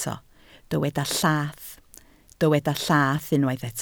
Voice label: "llath", Welsh